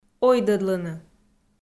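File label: "ойдӧдлыны", Komi, female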